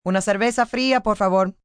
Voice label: Cold Beer, Restaurant, bar, foreign, female, Spanish; Talking Sound Effects